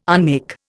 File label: "unmake", Indian